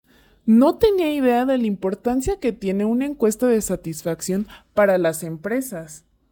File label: Voice Changer